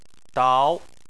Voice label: "dao2" Chinese